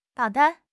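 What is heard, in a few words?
"导弹", Chinese; HSK6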